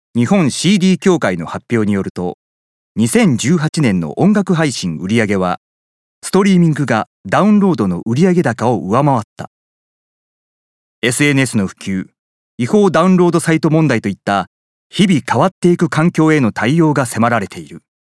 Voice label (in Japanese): ニュース